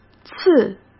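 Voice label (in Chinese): "次", Mandarin Chinese; chinese flashcards; hsk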